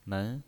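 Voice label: "men", pinyin voice, Mandarin Chinese, second tone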